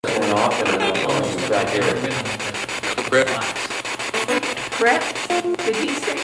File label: Background talk, MUSEUM; LAPD